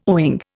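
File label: "owing", Indian English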